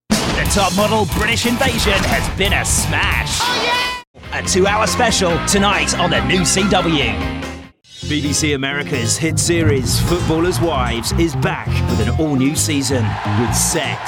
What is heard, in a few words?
Male, promos